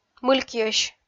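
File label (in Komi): "мылькйӧсь", Komi, female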